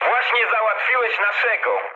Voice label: pol player killed ally mood low, speech pol; War Thunder

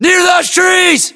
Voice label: landmark near trees, US; localized english; battlechatter

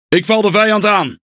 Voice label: NL Speech Pilots Bomber Engage; World Of Warships

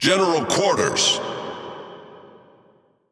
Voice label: Speech Lets Battle, EN; World Of Warships

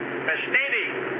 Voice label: player killed enemy, mood med, speech ge; War Thunder

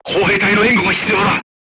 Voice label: voice message art command, crew tanks japanese

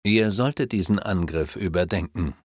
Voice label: Reconsider Attack, German, Advisors, Speech; Civ5

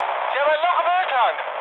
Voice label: damaged oil, mood high, speech ge; War Thunder

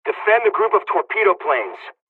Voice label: mission def, dialogs serbian; War Thunder

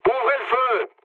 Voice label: attack position mood low, speech fr; War Thunder

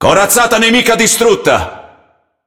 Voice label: It Speech Destroy Enemy Battleship; World Of Warships

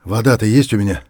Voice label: dehydrated; Escape from Tarkov

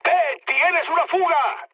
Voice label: damaged water, mood low, speech; War Thunder

